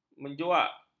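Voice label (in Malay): "menjuak", Malay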